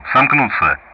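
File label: Speech Sound Russian; DCS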